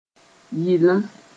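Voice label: "yɩlem", Mossi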